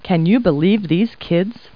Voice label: people, kid